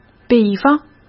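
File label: "比方", Mandarin Chinese; hsk; chinese-flashcards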